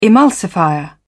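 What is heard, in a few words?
"emulsifier", British English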